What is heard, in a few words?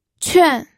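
"quan", Mandarin Chinese, fourth tone; pinyin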